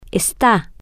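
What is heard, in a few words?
you are temporary, Spanish, female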